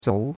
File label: "zou2", chinois; Cours-de-Chinois